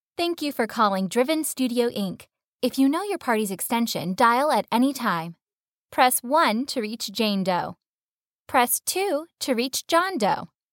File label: IVR